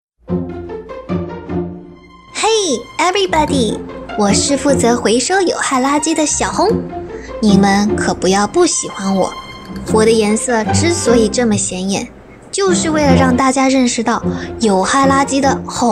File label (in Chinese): 宣传垃圾分类可爱活泼